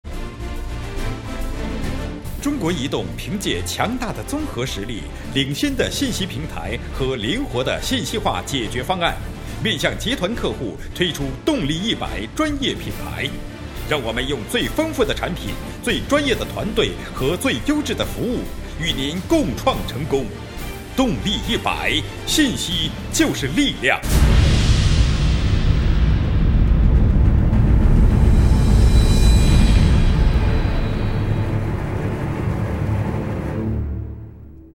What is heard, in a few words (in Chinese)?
广告宿迁移动动力雄浑大气配音